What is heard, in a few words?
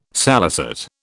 "salicet", British